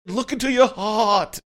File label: funny; look into you heart